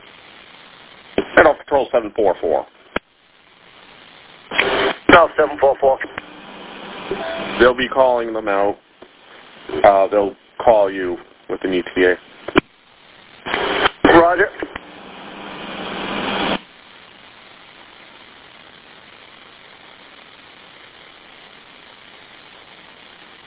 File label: Police Radio